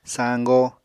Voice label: "sañgo", Apatani